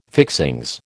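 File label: "fixings", American English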